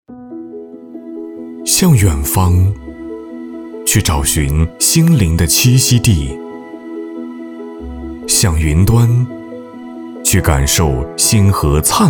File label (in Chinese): 男 专题宣传 温暖大气; 村落介绍